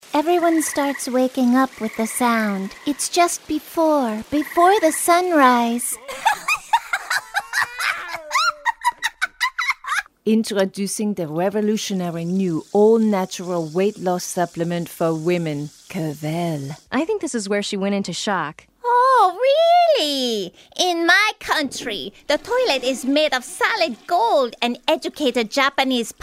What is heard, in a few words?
english american animation